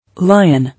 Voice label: "Lion", English

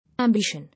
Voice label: "Ambition", English

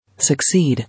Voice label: "Succeed", English

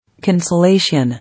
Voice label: "Cancellation", English

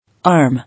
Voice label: "Arm", English

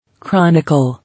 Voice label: "Chronicle", English

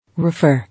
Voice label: "Refer", English

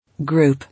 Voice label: "Group", English

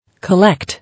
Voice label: "Collect", English

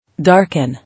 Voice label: "Darken", English

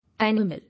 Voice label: "Animal", English

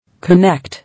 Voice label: "Connect", English

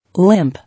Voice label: "Limp", English

